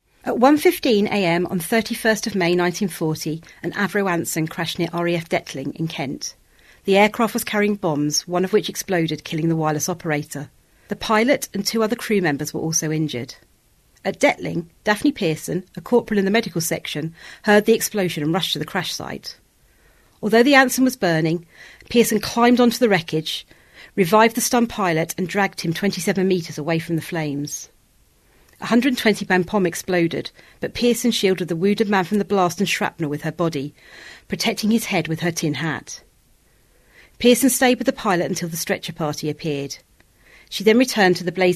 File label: Narration